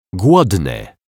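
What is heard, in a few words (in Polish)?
"glodny", Polish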